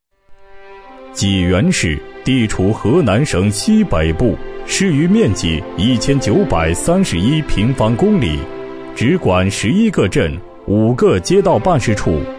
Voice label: 男声农业银行宣传片

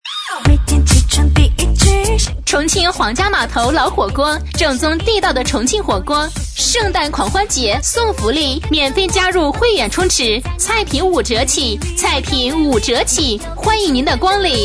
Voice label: 女声 促销 重庆黄家码头老火锅

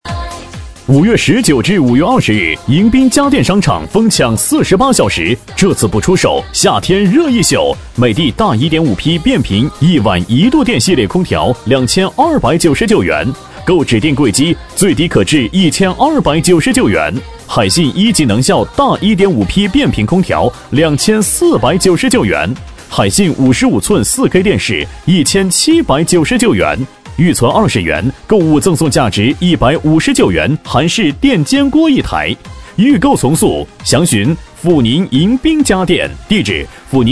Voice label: 男声迎宾家电商场

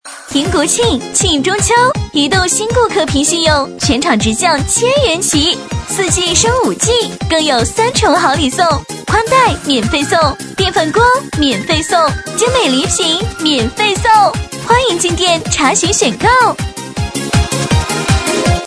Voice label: 女声 促销 移动

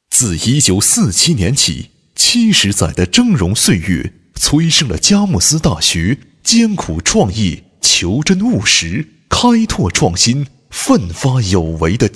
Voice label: 男声 佳木斯大学

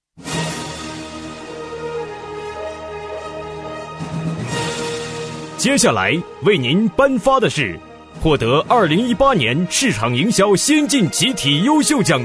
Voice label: 男声颁奖词 企业年度

